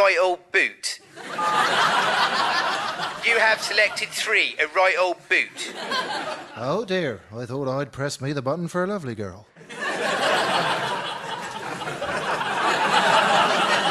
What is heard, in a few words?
Devon Dial A Date Comedy; Vocal Point